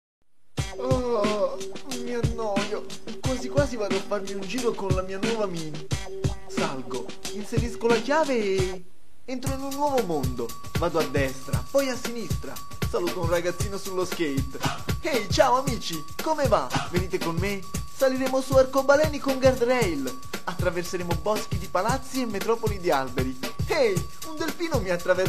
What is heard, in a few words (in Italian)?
Spot Radio